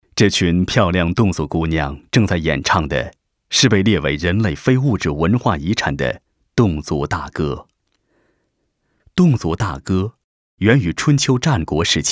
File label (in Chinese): 男-纪录; 2020民歌盛典